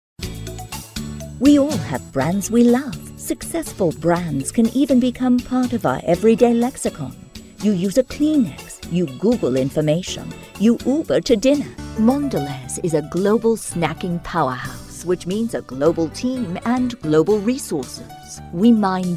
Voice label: english us and canada female generic